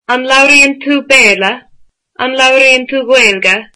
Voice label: Do you speak Irish, irish